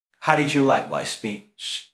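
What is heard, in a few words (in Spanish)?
Voice Acting, Spanish, male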